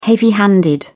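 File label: "heavy-handed", British English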